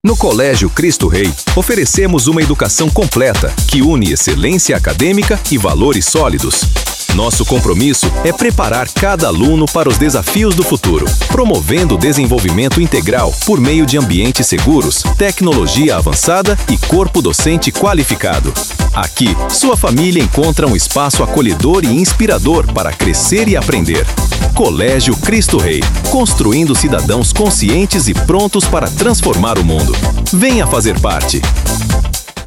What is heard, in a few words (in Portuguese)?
Spot Simples Colegio, spots; Colegio Cristo Rei